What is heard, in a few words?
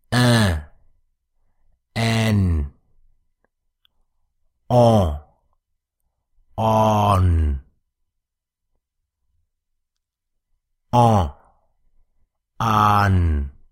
Nasal Vowel vs Nasal Consonant